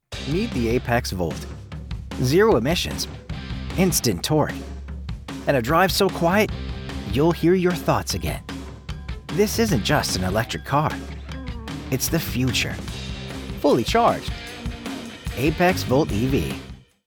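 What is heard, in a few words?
WITH MUSIC; APEXVOLT3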